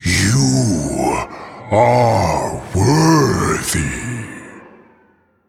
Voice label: Male Elemental; Lokholar; Victory Pre Explosion